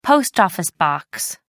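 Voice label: "post-office box", British English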